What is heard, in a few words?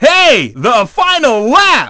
dj lap final; DJ VOICE; Nintendo; July 2020 Gigaleak